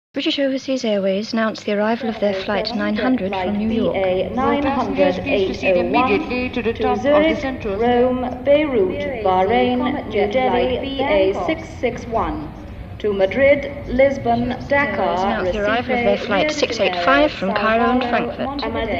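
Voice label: Airport; Flight Announcements; British Airways Flight Announcements To Hamburg, Berlin, Sabena To Brussels, Zurich, Athens, Teneriffe Etc.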